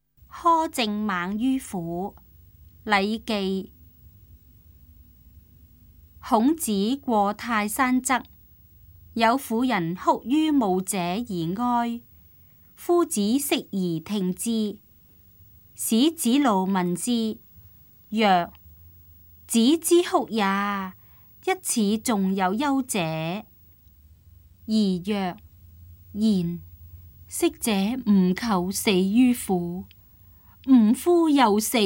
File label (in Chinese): cantonese; 苛政猛於虎; 粵語